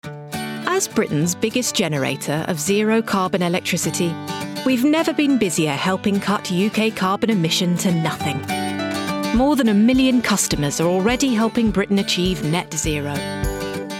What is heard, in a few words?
commercial reel